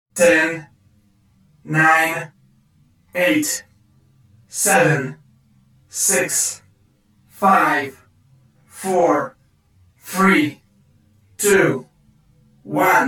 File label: Robot Voice Countdown 10 To 1